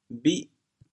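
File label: "bhih8", Teochew, chaoyang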